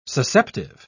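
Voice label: "susceptive", British English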